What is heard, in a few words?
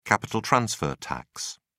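"capital-transfer tax", British English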